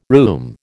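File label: "rheum", American English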